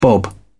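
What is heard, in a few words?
"bob", British English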